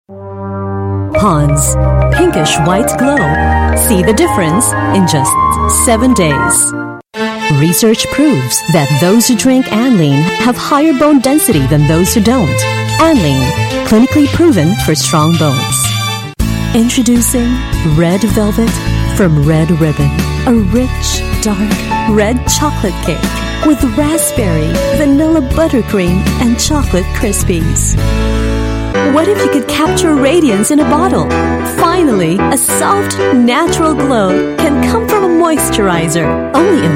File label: Tagalog English F